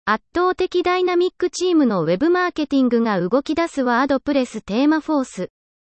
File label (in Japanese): yomiage